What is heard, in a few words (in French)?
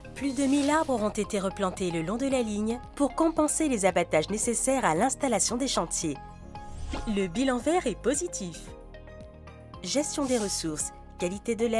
objectifs environnementaux métro ligne b informatif explicatif; Semtcar; convivial